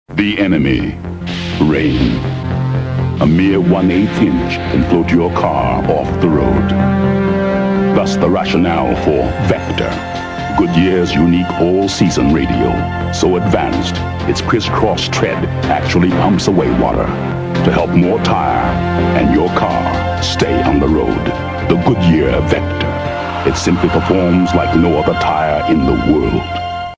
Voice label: Goodyear Vector Radial Tires AD; Motor City Radio Flashbacks; 1985